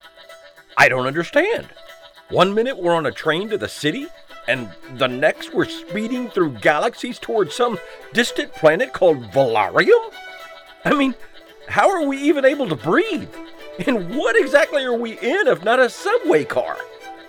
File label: Animation Confused